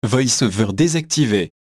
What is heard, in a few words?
VoiceOver Off, fr; EFI Audio